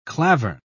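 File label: "klavern", British English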